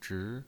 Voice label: "zhi2", pinyin